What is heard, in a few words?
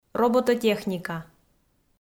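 "робототехника", Komi, female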